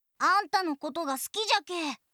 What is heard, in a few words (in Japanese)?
好きです 岡山; 響